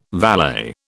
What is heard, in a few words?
"valet", British